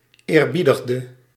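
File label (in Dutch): "eerbiedigde", Dutch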